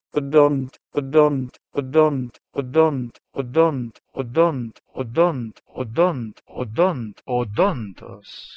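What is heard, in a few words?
Proto-Indo-European h1dont to Ancient Greek odontos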